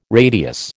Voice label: "radius", American